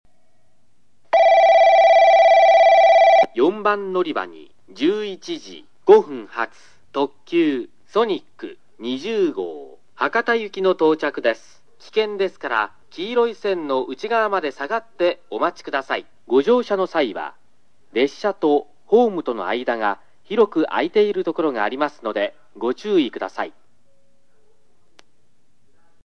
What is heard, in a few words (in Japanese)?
接近放送・男性（特急ソニック・博多）; 小倉駅; 4 鹿児島本線／折尾・赤間・博多 方面 福北ゆたか線／中間・直方・飯塚 方面